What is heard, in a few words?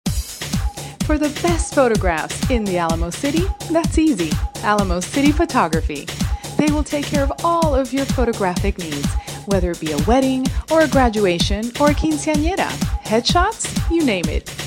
radio spot; lamo photography